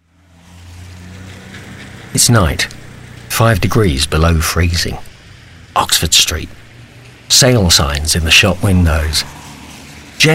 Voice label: Charity Standard English